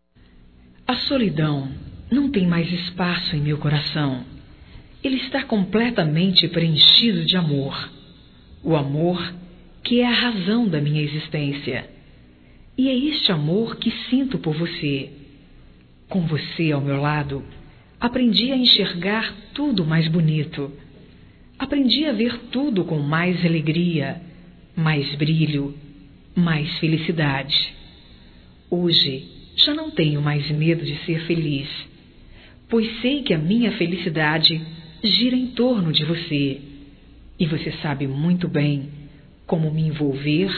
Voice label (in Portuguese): DECLARAÇAO ROMâNTICA, Românticas fem